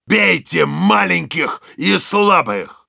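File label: revenge, Russian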